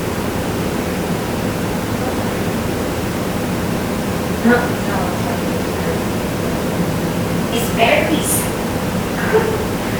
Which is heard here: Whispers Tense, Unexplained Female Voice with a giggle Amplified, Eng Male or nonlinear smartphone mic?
Unexplained Female Voice with a giggle Amplified